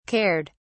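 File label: "cared", British English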